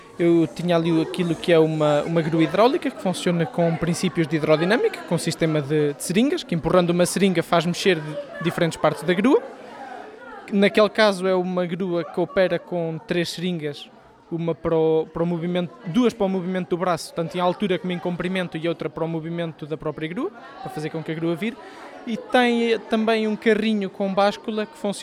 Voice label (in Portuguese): vox pop estudantes